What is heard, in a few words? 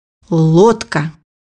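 "boat", Russian; bukvar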